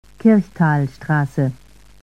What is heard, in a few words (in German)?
Ansage Haltestelle Kirchtalstr; SSB AG; Haltestellenansagen aus Stuttgart